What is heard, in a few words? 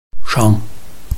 "shang5", Chinese